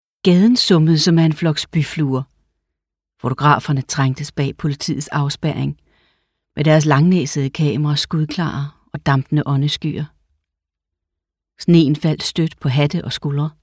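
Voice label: Danish, Audiobook, female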